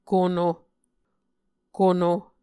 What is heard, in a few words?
"kono", Muscogee